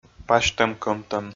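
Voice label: "пасьтӧм-кӧмтӧм", Komi, male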